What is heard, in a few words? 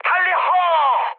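Korean enemy detected mood high; War Thunder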